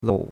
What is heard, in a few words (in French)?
"lou3", chinois; Cours de Chinois